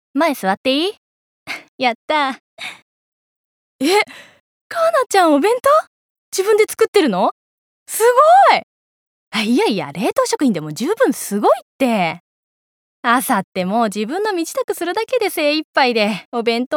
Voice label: 高校生

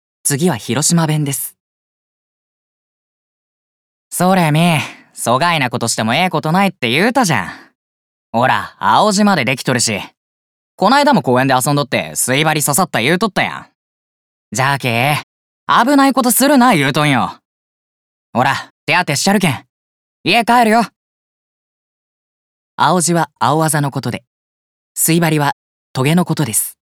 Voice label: 方言

